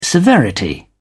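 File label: "severity", British English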